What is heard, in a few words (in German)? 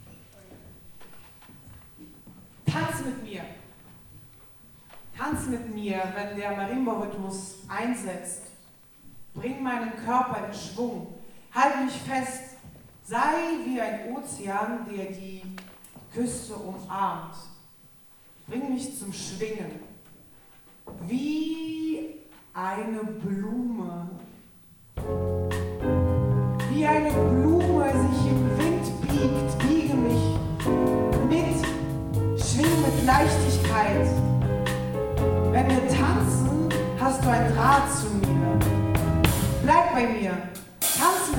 Ansage